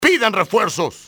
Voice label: call for reinforcements, enemy spanish soldier; XBOX